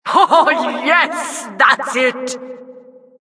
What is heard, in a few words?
announcer, vo, killstreak